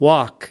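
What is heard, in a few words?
"wok", US English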